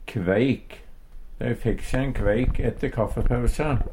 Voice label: kveik, Numedalsmål

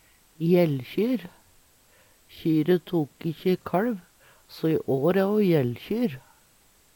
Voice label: jellkjyr, Numedalsmål

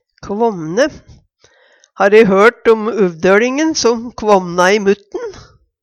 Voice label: kvamne, Numedalsmål

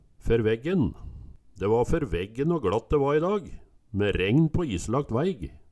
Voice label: fer veggen, Numedalsmål; De va fer veggen å gLatt de va i dag, me regn på islagt væig

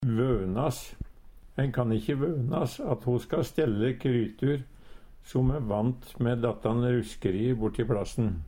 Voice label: vønas, Numedalsmål; Ein kan ikkje vønas at ho ska stelle krytur som e vant me dattan ruskerie borti Plassen.

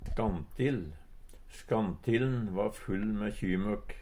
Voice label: "skantill", Numedalsmål; Substantiv hankjønn; Skantill`n va full me ky-møkk.

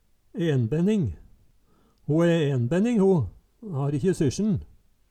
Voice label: "enbenning", Numedalsmål

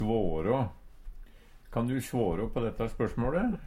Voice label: svårå, Numedalsmål; Verb

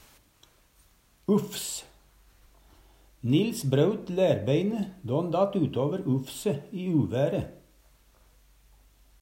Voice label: ufs, Numedalsmål; Substantiv inkjekjønn

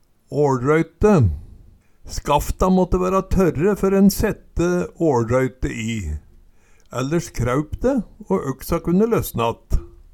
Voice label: "åLrøyte", Numedalsmål

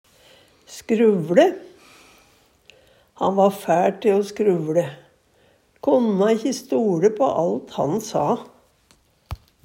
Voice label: "skruv Le", Numedalsmål; Verb

